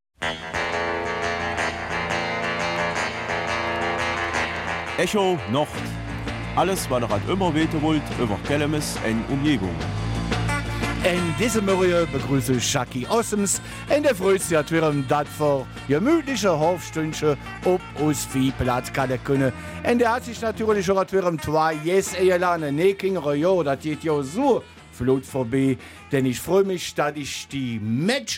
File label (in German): Kelmiser Mundart; Stücke der Liebhaber-Bühne Theatergrup Jömelech